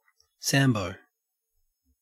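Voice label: "sambo", Australian English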